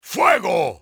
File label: fire, spanish soldier; enemy; XBOX